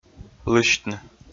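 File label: "лысьтны", Komi, male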